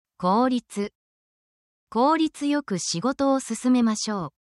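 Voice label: Japanese word, essential Japanese phrases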